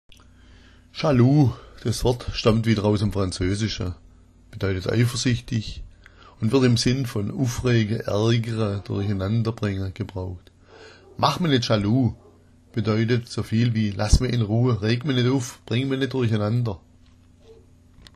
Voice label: "schalu", schwaebisch

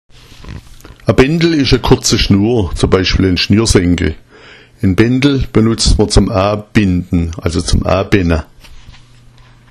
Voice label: "bendl", schwaebisch; A Bendl ist eine kurze Schnur, z.B. ein Schnürsenkel.

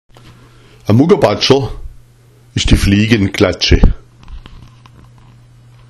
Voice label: "muggabatscher", schwaebisch; der Muggabatscher ist die Fliegenklatsche

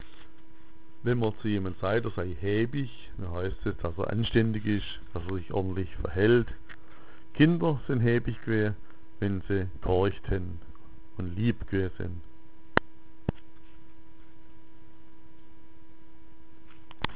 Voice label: haebich, schwaebisch

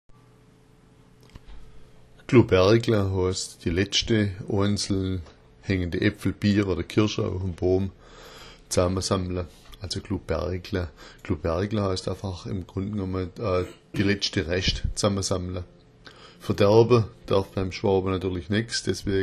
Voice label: glubergla, schwaebisch

